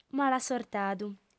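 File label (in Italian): "malasortadu", lingua sarda, fem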